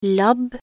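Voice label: "labb", Norwegian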